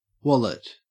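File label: "wallet" English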